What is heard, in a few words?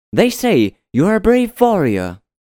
Warrior bright